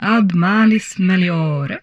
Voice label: humanoid female; Stellaris; humanoids